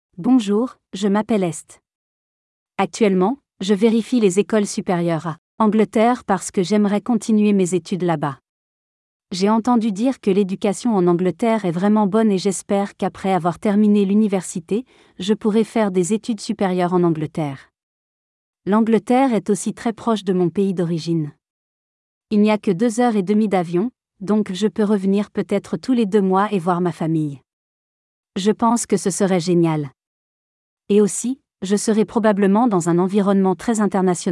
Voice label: Audiobook Voice